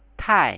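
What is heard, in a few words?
"tai" Chinese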